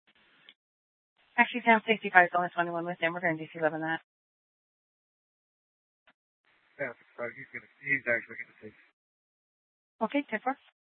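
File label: Police Department Dispatch, scanner; Mesa PD; 3 Red Mnt Dispatch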